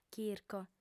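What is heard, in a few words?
"chirco", female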